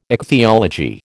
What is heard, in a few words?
"ichthyology", American